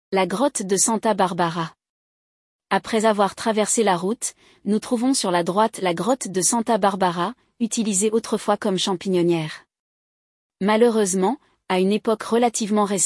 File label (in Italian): Audioguida FRA; Santa Barbara